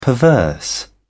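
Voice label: "perverse", British English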